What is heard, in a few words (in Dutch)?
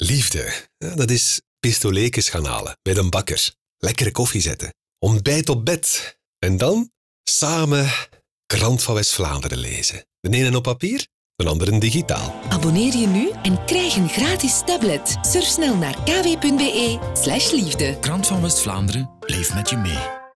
radio promo; radio reg promo kw liefde is; studio sonart